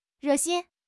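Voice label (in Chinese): "re4 xin1", Mandarin Chinese; 汉语口语新时代-初级下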